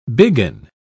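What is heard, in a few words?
"biggin", British English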